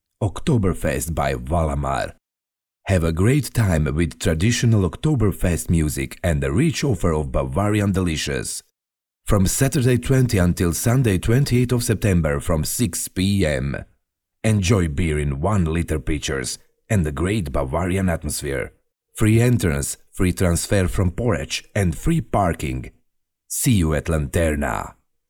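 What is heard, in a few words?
Croatian, English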